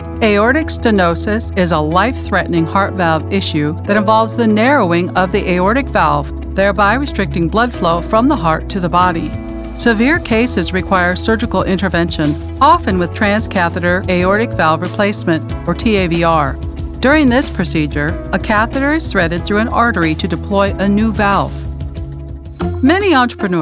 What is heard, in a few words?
Professional Female Voice Over